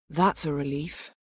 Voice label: female